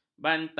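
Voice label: "bantah", Malay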